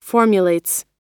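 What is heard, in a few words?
"formulates", American English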